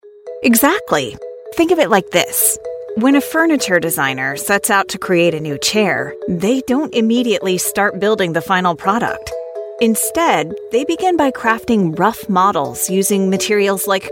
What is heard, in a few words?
american natural